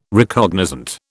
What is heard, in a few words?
"Recognizant", British